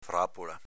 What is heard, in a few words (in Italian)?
"frapola", dialetto veneto; s.f. piega; Vocabolario Veneto-Italiano